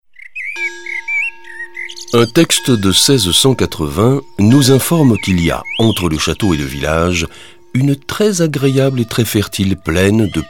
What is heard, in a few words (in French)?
audioguide fr adulte; jardin; modave